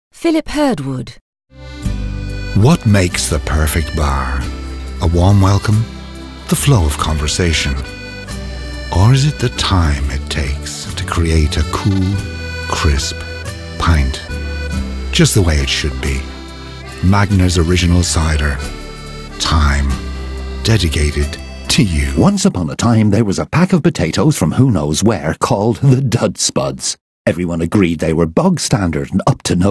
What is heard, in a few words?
Irish